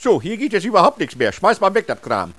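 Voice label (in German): "nix", äusserungen westfalen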